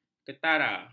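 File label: "ketara", Malay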